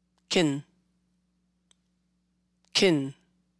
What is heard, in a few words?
"Can", English; how to pronounce can and cant in english tips for non native speakers